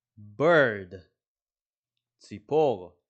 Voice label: bird – ציפור

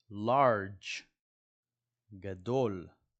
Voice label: "large" – "גדול"

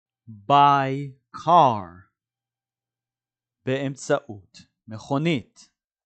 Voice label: "by car" – "באמצעות מכונית"

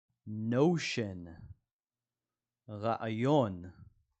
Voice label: "notion" – "רעיון"

